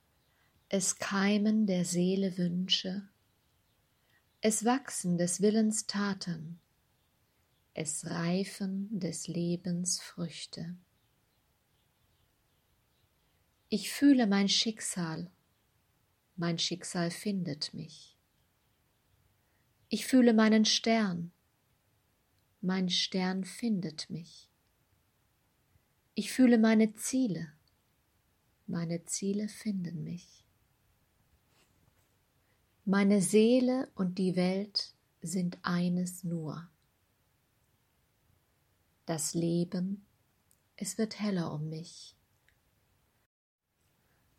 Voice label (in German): Friedenstanz Gedicht